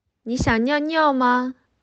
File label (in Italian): Cinese